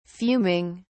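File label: "fuming", British English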